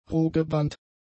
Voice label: "rohgebrannt", German; adjectives

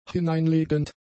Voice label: "hineinlegend", German; adjectives

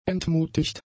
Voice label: "entmutigt", German; adjectives

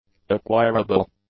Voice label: "acquirable", English; adjectives

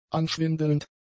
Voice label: "anschwindelnd", German; adjectives

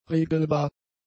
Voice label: "regelbar", German; adjectives

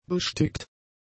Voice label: "bestickt", German; adjectives

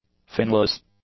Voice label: "finless", english; adjectives

